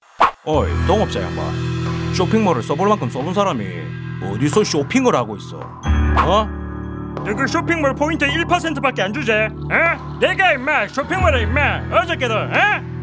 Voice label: Acting